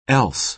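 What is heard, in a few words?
"else", American English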